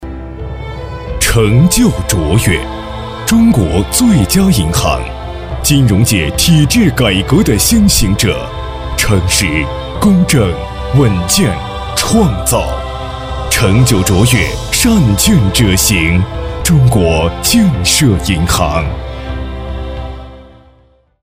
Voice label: 广告 男 大气浑厚; 中国建设银行

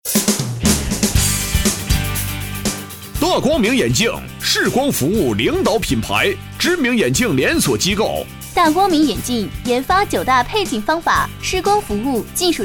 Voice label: 多人配音 眼镜男 女 激情; 大光明

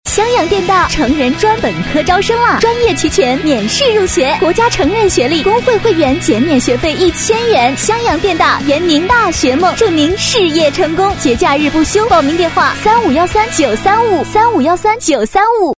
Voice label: 广告 成人专本招生女 时尚激情; 襄阳电大成人专本招生女50

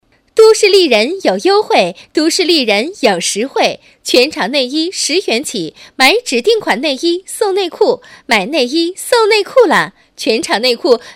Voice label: 促销 内衣 女; 都市丽人内衣

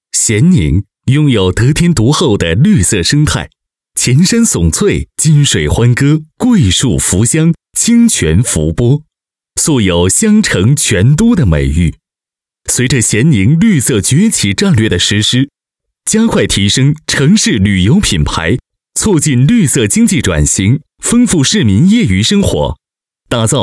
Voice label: 咸宁男97-大气时尚; 专题

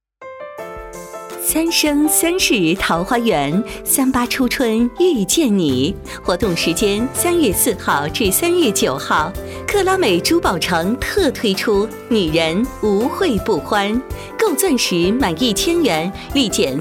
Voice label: 促销 珠宝三八节 女 欢快

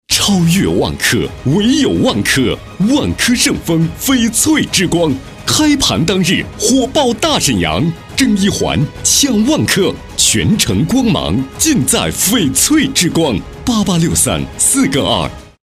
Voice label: 男-大气; 万科

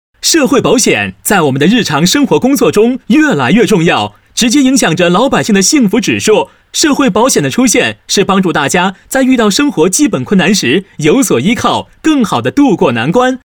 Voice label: 男-轻快幽默; 飞碟说; MG社保

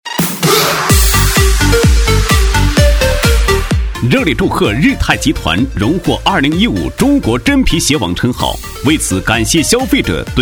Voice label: 【促销】热烈祝贺日泰集团男

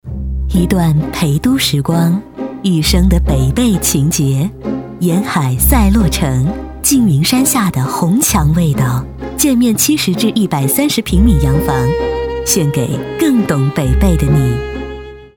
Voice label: 广告 房产 大气

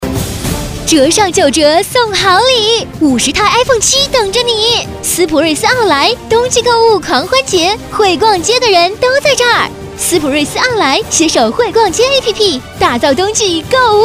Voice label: 促销 商超类女 时尚; 斯普瑞斯奥莱商超类女45

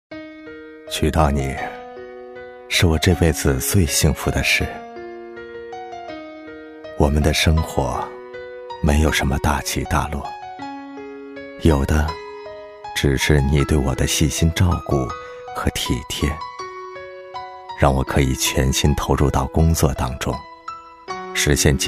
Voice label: 【旁白】爱情告白男-舒缓深情

